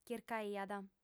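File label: "chircaiat", Sardinian, female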